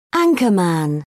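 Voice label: "anchorman", English, British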